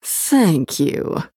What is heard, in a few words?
Female Human Thanks